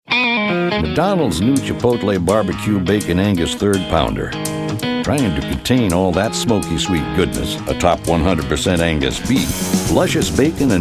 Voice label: commercial